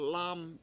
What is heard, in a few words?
"lAAm", washo; mortar